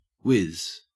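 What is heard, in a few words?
"wiz", Australian English; pronunciations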